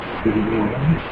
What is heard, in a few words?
EVP Amplified